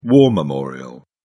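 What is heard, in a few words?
"war memorial", English, British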